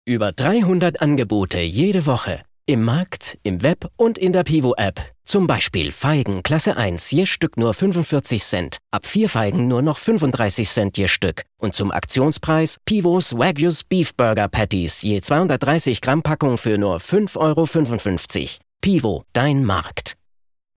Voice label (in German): Werbung Supermarktdurchsage